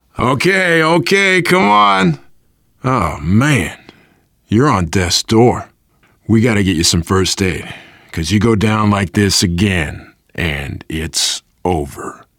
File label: revive critical friend, voice, biker; Left 4 Dead 2; survivor